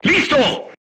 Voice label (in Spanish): voice message load, Spanish